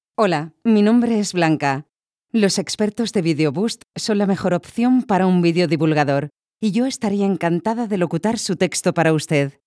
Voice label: Spanisch, voiceover; internationale voiceover

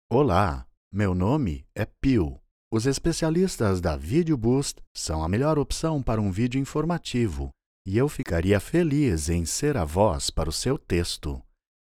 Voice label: Portugiesisch Brasil; internationale voiceover

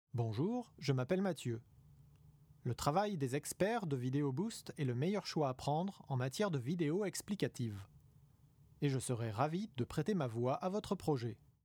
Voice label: franzsisch, voiceover

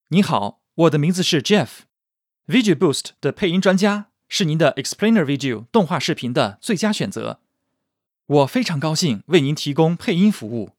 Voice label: Chinesisch Mandarin; internationale voiceover

